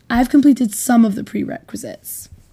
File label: interviewee female